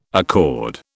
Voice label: "accord", British

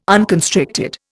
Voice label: "unconstricted", Indian

